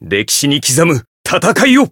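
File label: encounter, Japanese; claude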